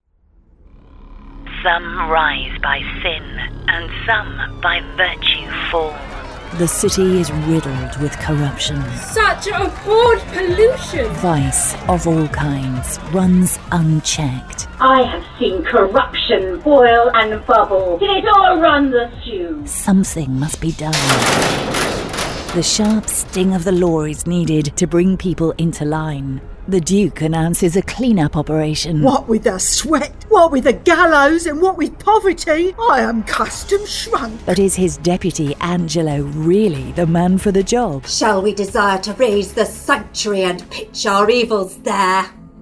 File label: radio ad